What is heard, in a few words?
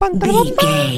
voice fun character cute cartoon